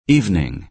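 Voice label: "evening", American English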